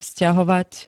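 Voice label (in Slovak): "vzťahovať", Slovak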